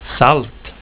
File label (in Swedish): "salt", Swedish